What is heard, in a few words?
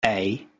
stressed a